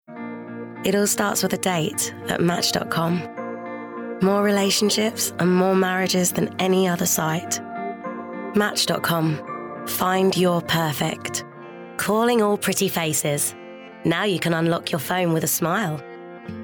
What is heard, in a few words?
Welsh Commercials Montage; 20/30's London/Neutral, Chatty/Authentic/Husky